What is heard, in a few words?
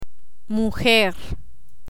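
"mujer", Spanish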